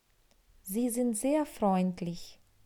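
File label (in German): "Sie sind sehr freundlich", German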